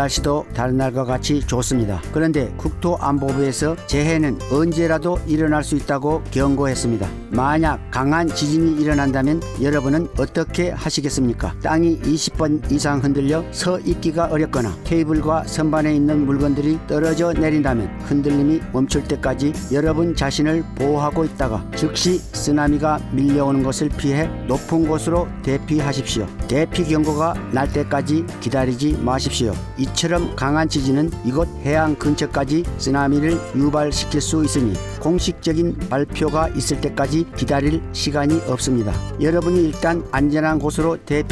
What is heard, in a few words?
earthquake tsunami Korean; DHS; TEMCO